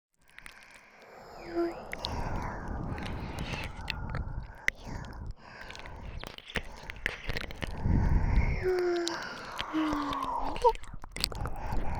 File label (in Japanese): 囁き; 我慢しないでいっぱい出して？ぴゅっぴゅっ; 耳舐めあり; 両耳Ver